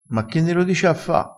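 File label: "a fa", Italian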